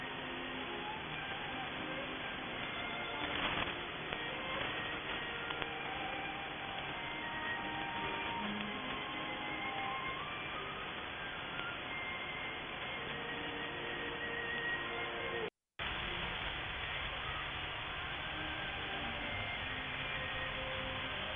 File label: 11910khz; Voice of Korea